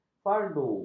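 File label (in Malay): "fardu", Malay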